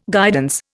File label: "guidance", Indian